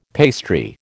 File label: "pastry", American